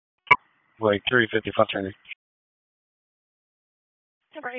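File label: Dispatch 1, P25; WSO DISP 1; wake p25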